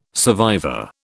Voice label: "survivor", British